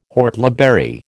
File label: "whortleberry", American